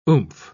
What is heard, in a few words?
"oomph", pronounce